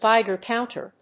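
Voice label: "Geiger counter", English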